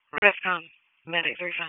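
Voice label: EMS Dispatch, p25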